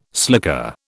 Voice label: "slicker", British

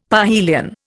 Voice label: "parhelion", Indian; Dictionary

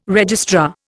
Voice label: "registrar", Indian

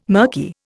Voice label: "murky", Indian

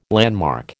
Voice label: "landmark", American

